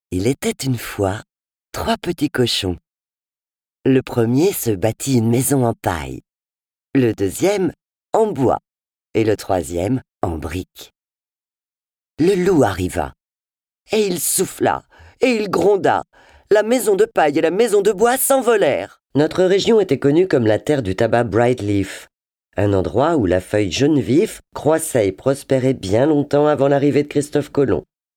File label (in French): Medley Narrations